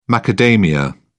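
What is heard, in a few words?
"macadamia", English, British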